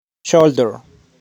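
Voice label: "Shoulder", pronunciation; body parts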